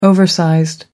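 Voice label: "oversized", English, British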